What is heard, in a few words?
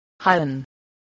"heighten", English, spelling